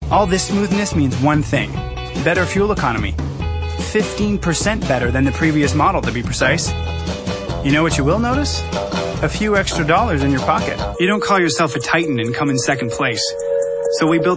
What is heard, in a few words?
Commercial